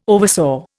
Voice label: "oversaw", Indian